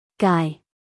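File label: "guy", American English, female; 1000 hours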